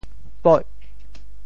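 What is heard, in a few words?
"poih8", Teochew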